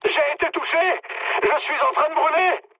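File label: French on fire mood high; War Thunder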